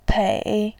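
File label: "pei", Mandarin, tone 3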